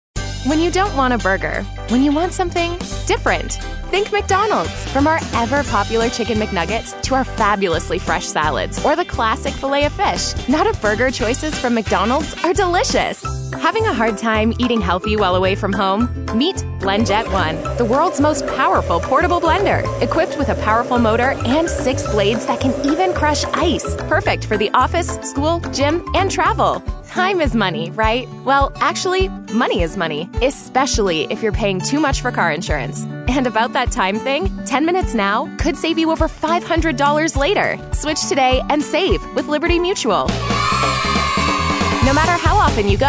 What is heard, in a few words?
english canadian commercial